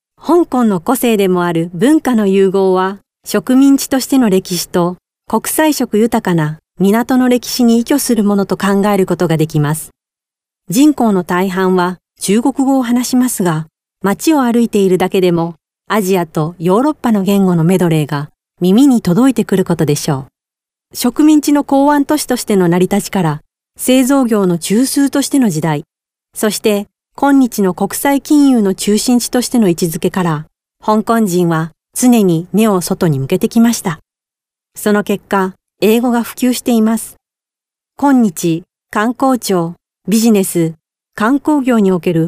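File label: Japanese female corporate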